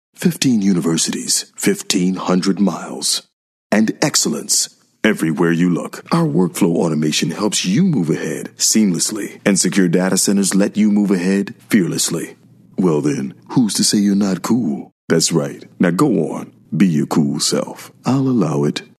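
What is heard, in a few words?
US English, male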